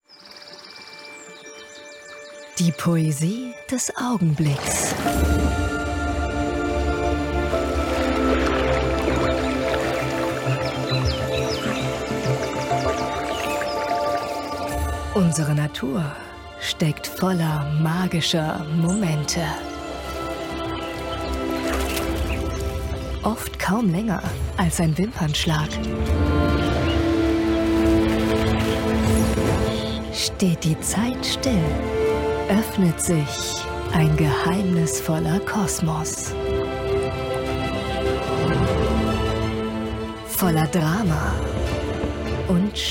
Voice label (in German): doku; Köln; Muttersprache Deutsch; magische momente; arte